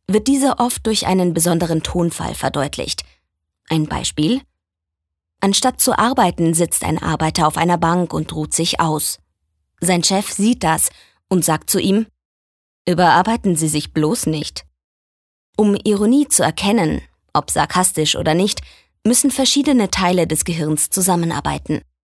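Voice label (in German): commentary, Sarkasmus, Neutral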